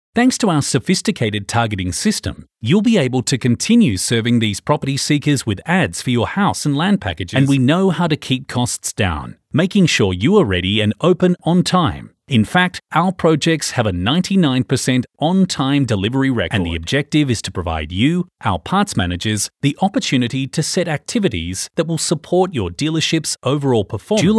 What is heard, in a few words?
Corporate